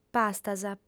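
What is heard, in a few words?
"pastas", Sardinian, female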